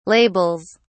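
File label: "labels", British English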